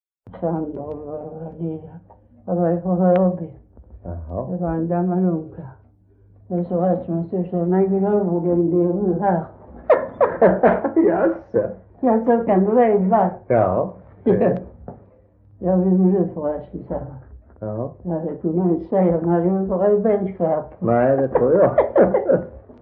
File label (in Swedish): Svedala SK, dialekter; Folkminnen; Svenska sagor